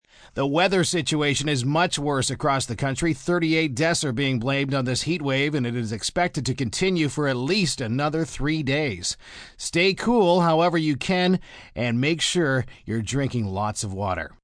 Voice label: Heat Wave M, Broadcast, weather, male weather report, heat/humidity health advisory with death; heat/humidity health advisory with deaths reported, news (human voice speaking)